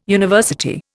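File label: "university", Indian English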